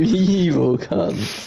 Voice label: evil

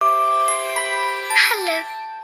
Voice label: cute hello

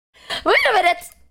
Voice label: voice crack

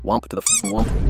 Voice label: womp to the f ing womp ai text voice

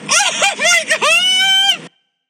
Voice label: oh my god female voice

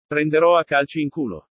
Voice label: kick some ass italian male

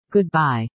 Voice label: goodbye robot

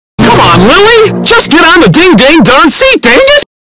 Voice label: dang it earrape

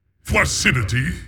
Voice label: announcer; mk; flaccidity

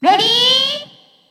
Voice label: announcer ready; mario party

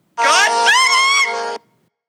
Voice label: god damnit high pitch

